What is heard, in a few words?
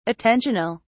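"attentional", English, British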